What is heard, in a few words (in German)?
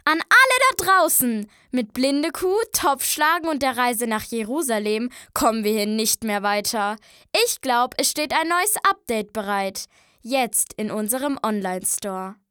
maedchen